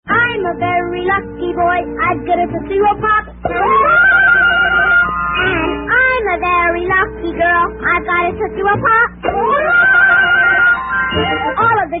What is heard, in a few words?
Vintage Commercials Tootsie Roll Pops